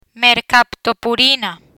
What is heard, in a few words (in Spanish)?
"Mercaptopurina", Spanish